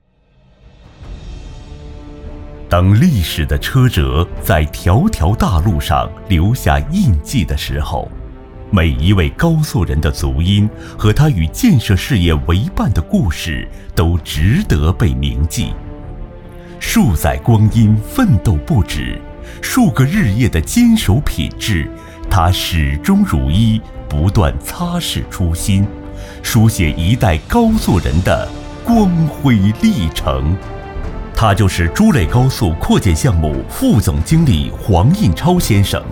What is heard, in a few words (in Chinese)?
男 人物宣讲